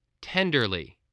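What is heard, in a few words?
"tenderly", English word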